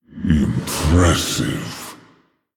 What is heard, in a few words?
Male Orc